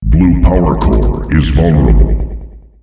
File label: "Blue Powercore vulnerable", announcer male; Unreal Tournament; ut2004